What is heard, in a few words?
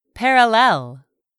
"parallel", English; 3000 words